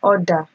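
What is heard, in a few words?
"oder", Nigerian Pidgin, female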